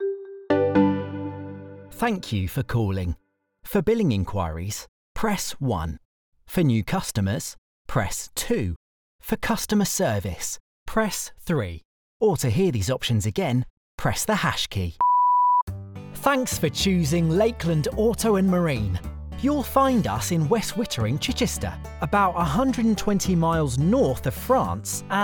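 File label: english british interactive voice response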